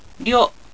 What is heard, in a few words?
"ryo", Japanese